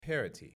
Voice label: "parity" pronounced